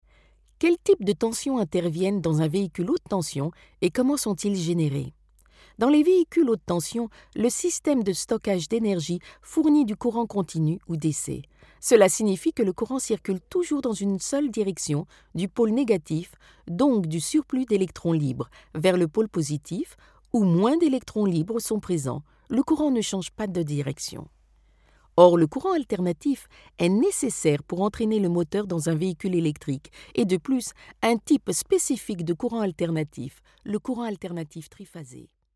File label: French